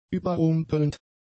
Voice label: "überrumpelnd", German; adjectives